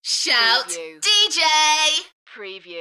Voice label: UK female, "Shout dj", Enthusiastic; beanstalk audio